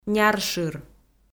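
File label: "няршыр" Komi-Zyrian, female; kpv audio dict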